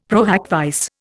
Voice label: "pro hac vice", Indian; Dictionary